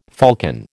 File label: "falcon", American